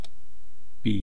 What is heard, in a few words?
"pii", east cree syllabics